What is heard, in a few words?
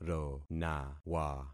"ronawa", concat syllables; Affixing experiment